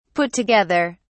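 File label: "put together", British English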